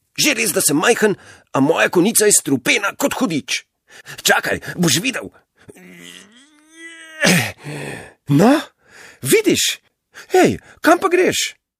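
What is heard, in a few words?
slovenian male character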